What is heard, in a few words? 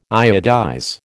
"iodize", American